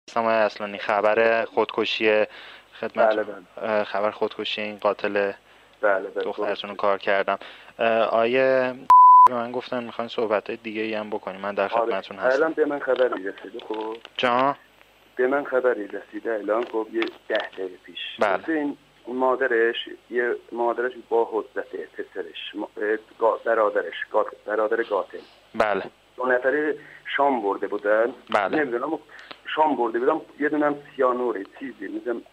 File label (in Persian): صدای پدر آتنا; خبر خودکشی قاتل